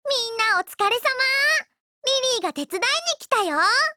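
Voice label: FORMATION Japanese; Lily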